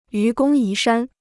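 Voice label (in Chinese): "愚公移山", Chinese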